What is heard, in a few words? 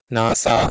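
"nh2seh2", Proto-Indo-European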